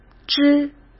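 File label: "之", Mandarin Chinese; chinese flashcards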